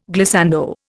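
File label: "glisten", Indian; Dictionary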